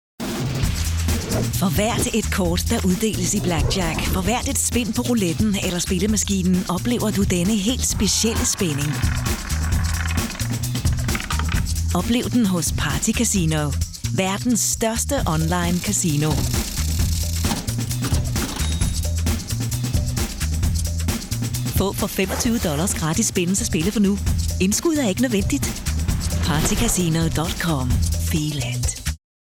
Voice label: female danish radio commercial